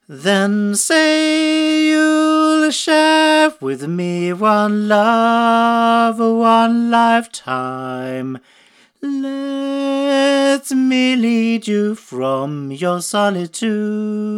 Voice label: Heil Sound PR 20 UT male